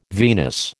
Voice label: "venous", American

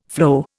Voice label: "floe", Indian

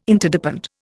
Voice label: "Interdepend", Indian

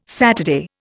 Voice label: "Saturday", Indian

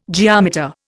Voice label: "geometer", Indian

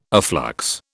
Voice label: "afflux", British

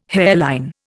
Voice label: "hairline", Indian

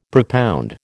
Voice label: "propound", American

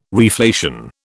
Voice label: "reflation", British

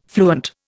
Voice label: "fluent", Indian

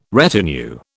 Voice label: "retinue", British

